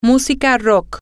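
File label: rock music, Spanish, female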